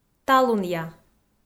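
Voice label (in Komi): "талунъя", Komi, female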